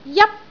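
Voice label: "jap1", Cantonese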